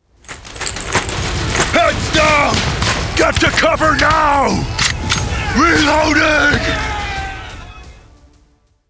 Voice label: Frontline Soldier / Raw, Intense, Urgent, Forceful; Character Animation